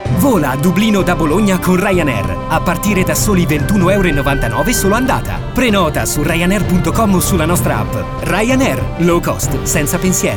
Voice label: spot nazionali

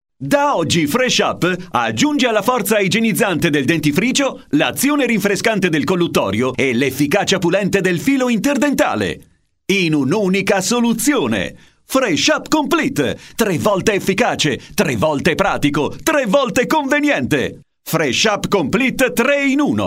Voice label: spot nazionali

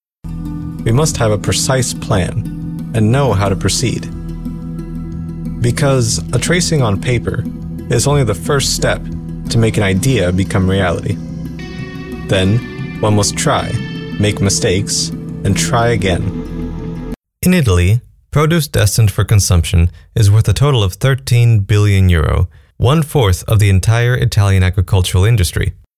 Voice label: inglese USA

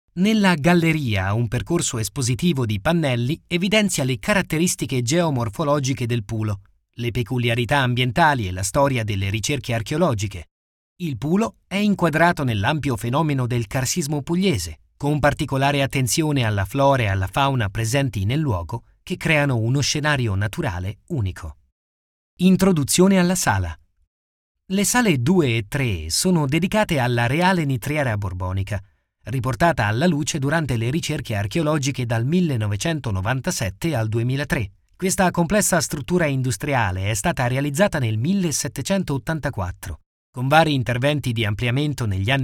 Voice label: audioguide

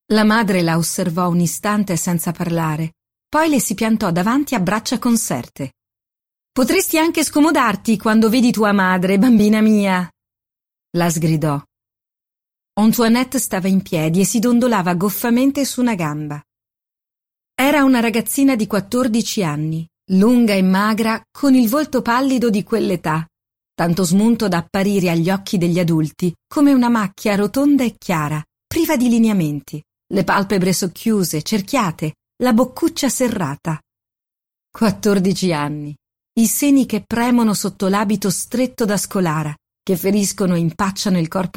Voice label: audiolibri recitato

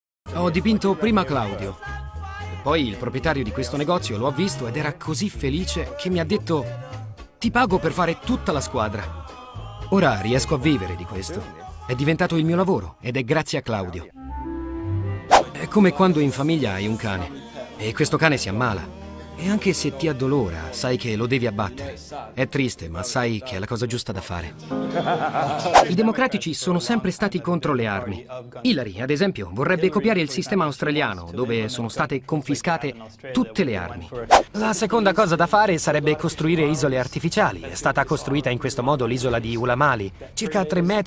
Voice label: documentari

